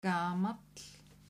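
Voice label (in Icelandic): "gamall", Icelandic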